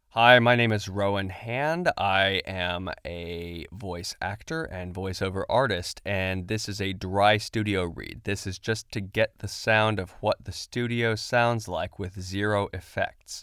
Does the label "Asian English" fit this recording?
No